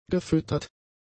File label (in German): "gefüttert", German; adjectives